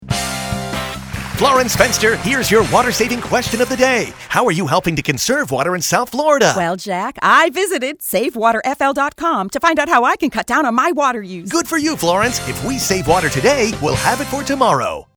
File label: water conservation english